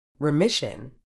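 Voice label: "remission", medical English, British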